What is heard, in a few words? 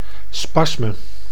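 "spasme", Dutch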